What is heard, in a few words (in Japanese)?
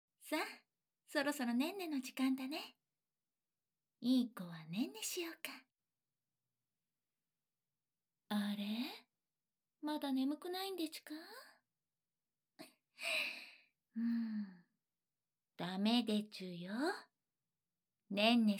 ばぶばぶ安眠ボイス